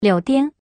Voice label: slot fruits female